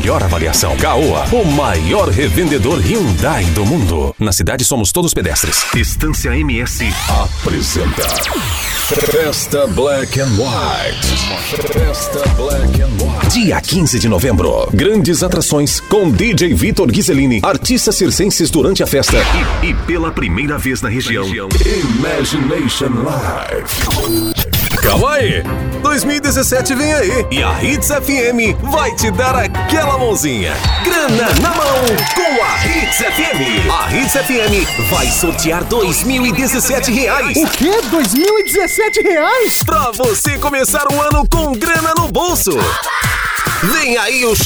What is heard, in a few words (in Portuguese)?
locução brasil